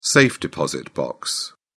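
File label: "safe-deposit box", English, British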